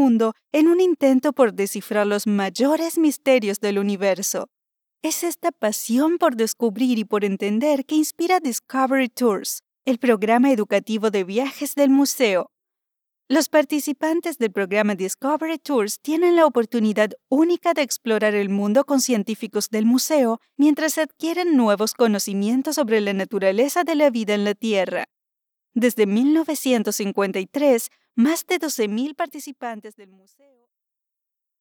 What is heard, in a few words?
spanish latin american documentaries